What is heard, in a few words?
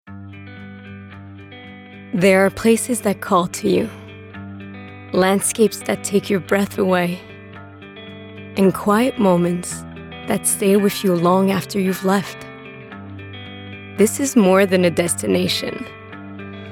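New Brunswick English voice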